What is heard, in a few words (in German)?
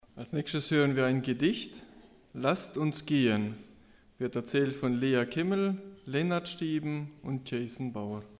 Ansage Gedicht